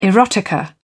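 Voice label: "erotica", British English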